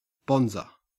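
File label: "bonzer", Australian English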